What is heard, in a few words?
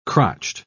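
"crotched", British English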